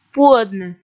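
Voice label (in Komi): "пуӧдны", Komi, female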